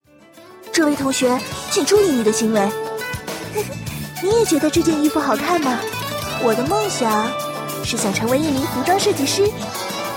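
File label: female young woman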